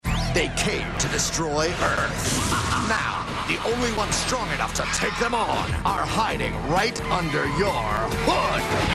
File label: Commercial Narrator; Robots In Disguise television show